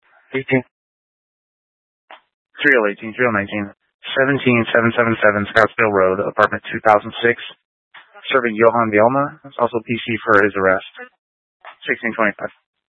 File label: Scottsdale Police, Simulcast